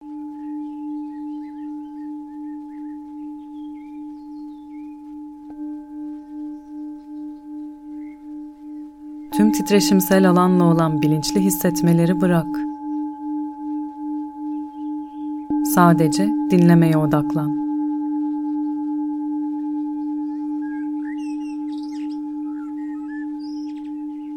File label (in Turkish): MEDITASYON FARKINDALIK